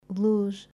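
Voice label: "Luz", Portuguese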